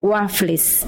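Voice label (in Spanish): "wafles", Spanish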